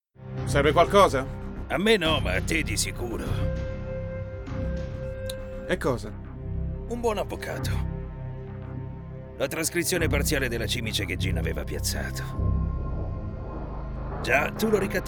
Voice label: italian video games